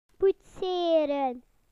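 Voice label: "modelling", British English; PictureDictionary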